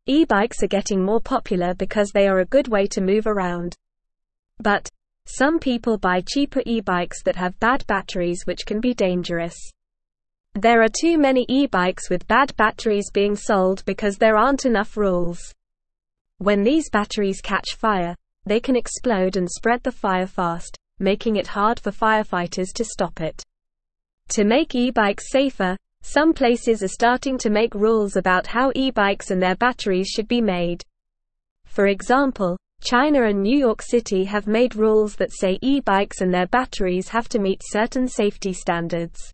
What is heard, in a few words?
English Lower Intermediate NORMAL Reading; E bikes Safe and Fun with Good Batteries